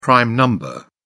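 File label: "prime number", British English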